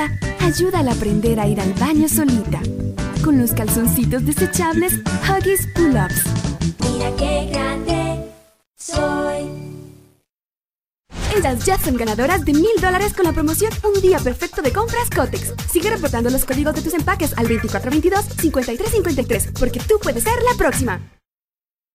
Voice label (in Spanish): locutora guatemala